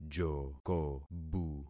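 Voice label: "jokobu", concatenated syllables; Affixing experiment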